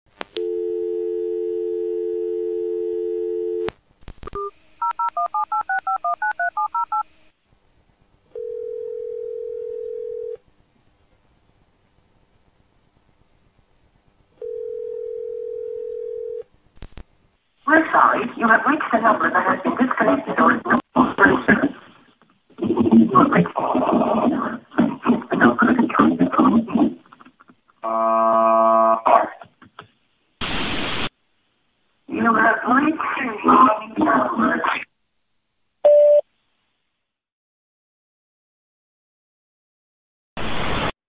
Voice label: Glitch Horror Creep Voice Mail; Hotline Miami